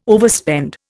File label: "overspend", Indian